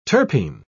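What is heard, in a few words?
"terpene", English, British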